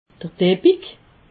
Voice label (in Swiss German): "deppig", alsace